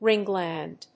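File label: "ring gland", English; 環状腺; Weblio英和辞書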